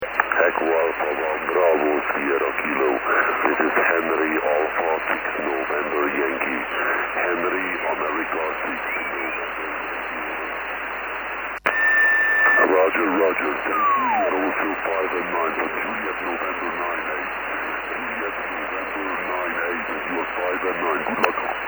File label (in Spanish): trabajándome, atraves del RS-12, en SSB; desde JN98